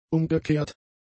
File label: "umgekehrt", German; adjectives